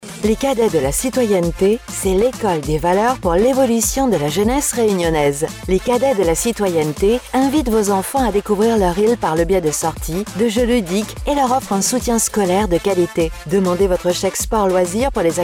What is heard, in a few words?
Spot; CADET DE LA CITONNETE